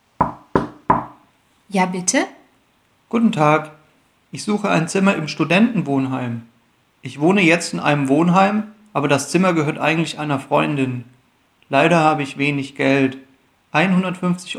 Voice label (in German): Dialog